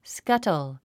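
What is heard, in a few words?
"scuttle", English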